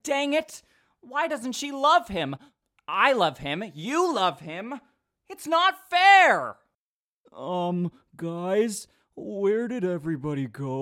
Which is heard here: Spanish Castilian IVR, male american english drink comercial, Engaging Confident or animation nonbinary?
animation nonbinary